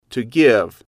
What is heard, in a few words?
"to give", English